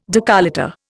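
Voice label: "décolleté", Indian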